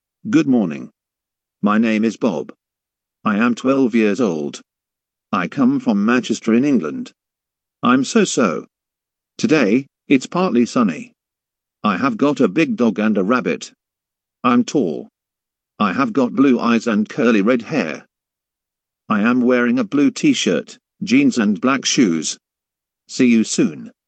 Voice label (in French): se presenter